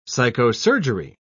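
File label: "psychosurgery", British English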